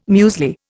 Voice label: "muesli", Indian